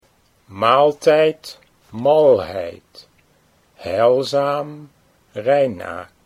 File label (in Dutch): maaltijd malheid, Dutch